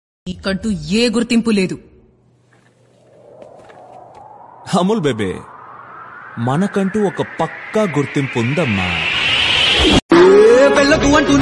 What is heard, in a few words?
Telugu Dialogue; Free Fire